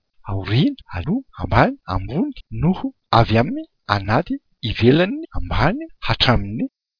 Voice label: malagasy words